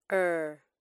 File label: "r" phonic; spoken English lanugage